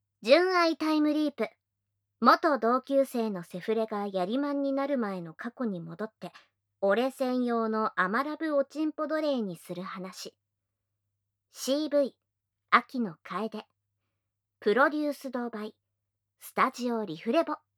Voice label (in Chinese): 标题 朗读; KU100